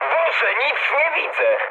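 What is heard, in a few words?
searchlite mood med, speech Polish; War Thunder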